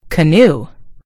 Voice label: "canoe", en US; gcompris